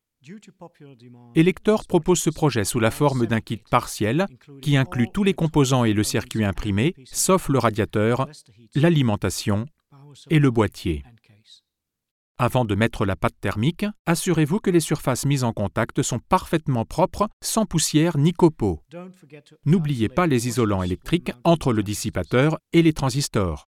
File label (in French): voix off; elektortv; qwatt